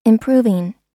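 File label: "improving", American English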